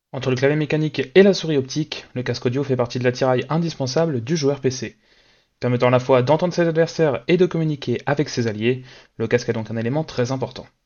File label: soundtest turtle beach stealth 600 max